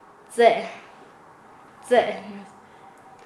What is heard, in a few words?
"robar", Nasa Yuwe; paez